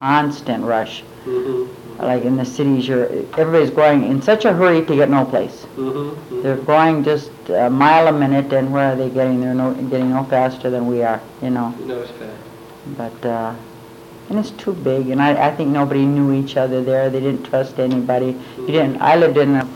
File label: Personal history with 55 year old female Chisholm Minnesota, american english dialect